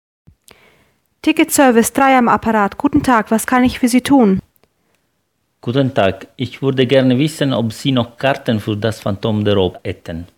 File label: german dialog level b2